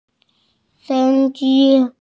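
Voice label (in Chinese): "si1ji1", Mandarin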